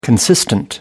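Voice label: "consistent", English, British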